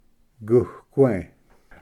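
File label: "guque", Cherokee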